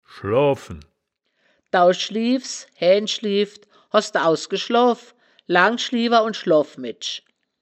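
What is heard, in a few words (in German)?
"schloafen", wittlicher woerterbuch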